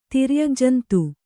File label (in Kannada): "ತಿರ್ಯಗ್ಜಂತು", Kannada